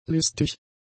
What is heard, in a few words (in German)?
"listig", German; adjectives